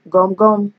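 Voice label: "gumgum", Nigerian Pidgin, female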